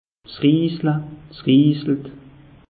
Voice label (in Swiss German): "riisle", alsace; meteo